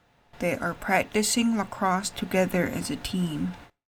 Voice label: English